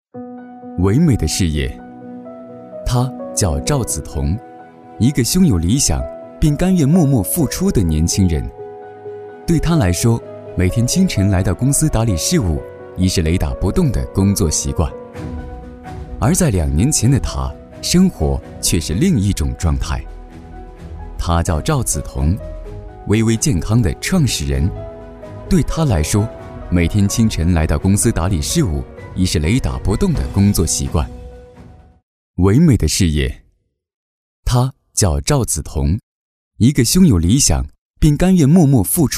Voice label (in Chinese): 男, 专题, 带干音; 微微健康